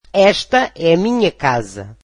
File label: portuguese this is my house